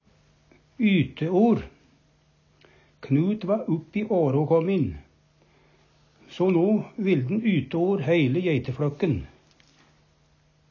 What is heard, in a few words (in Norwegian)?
"yte-or", Numedalsmål; Uvdal